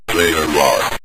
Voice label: player lost, synth voice; Kenney's Sound Pack

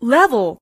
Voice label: "level", Voiceover, Female; Kenney's Sound Pack

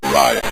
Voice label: "right", synth voice; Kenney's Sound Pack

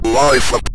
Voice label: life up, Synth Voice; Kenney's Sound Pack; Synth Voice 1

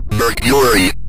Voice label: "mercury", Synth Voice; Kenney's Sound Pack; Synth Voice 1

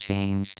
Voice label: "changed", robot voice; dry; Leevaudio; Fourbit

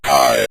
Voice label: "tie", synth voice; Kenney's Sound Pack

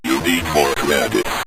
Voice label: you need more credits, synth voice; Kenney's Sound Pack

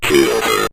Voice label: kill Her, Synth Voice; Kenney's Sound Pack

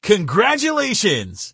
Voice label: "congratulations", Male; Kenney's Sound Pack; Voiceover Pack

